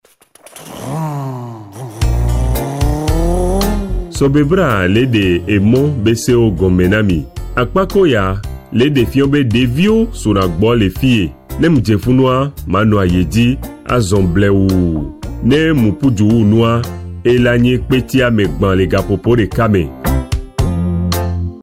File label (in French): PRESENCE D'ENFANTS